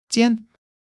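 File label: "jian1" Chinese; 基础汉语40课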